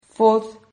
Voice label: "fod", Silabas

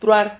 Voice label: "truar", Silabas

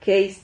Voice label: "jeis", Silabas

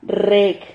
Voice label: "rreg", Silabas

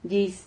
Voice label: "llis", Silabas

